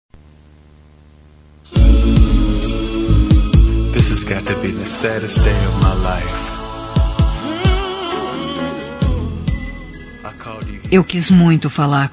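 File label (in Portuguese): Desculpa pelo bolo, feminino; Telemensagens; Desculpas; Telemensagens 2017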